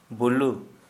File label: Santali thigh